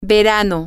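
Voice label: summer, Spanish, female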